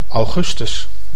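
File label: "augustus", Dutch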